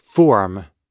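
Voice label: "form", English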